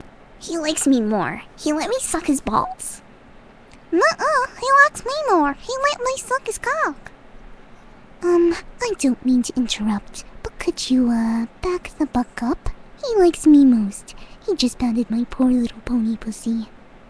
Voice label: arguing; CMC ⁄ Fluttershy arguing; Apple Bloom